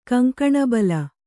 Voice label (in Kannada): "ಕಂಕಣಬಲ", Kannada